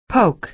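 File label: "poke", American English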